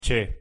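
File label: "ch", Spanish pronunciation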